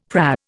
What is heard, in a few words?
"PRA", Indian; Dictionary